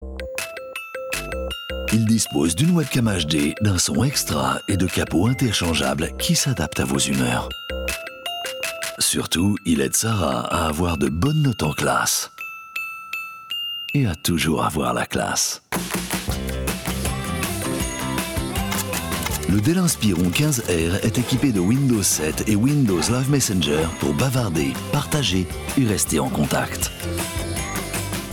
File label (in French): spot TV; Dell La Classe